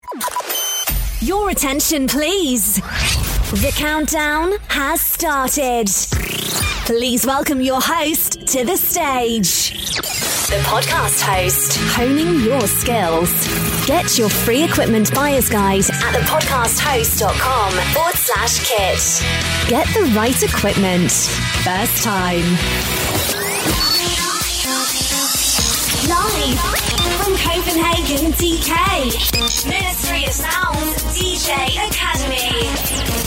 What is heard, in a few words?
imaging and podcasts